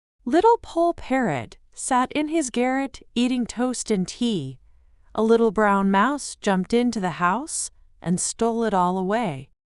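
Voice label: female US, classic rhymes; Little Poll Parrot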